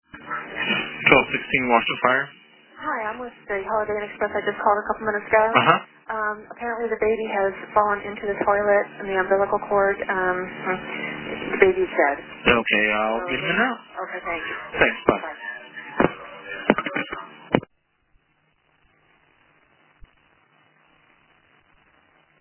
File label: 911 call